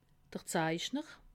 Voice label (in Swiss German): "zeichner", alsace